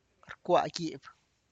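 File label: tlacochahuaya, Zapotec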